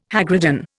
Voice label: "hagridden", Indian

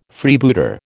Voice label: "Freebooter", American

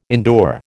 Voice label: "endure", American

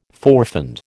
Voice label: "forfend", American

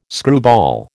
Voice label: "screwball", American

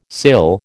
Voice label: "sill", American

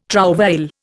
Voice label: "trouvaille", Indian

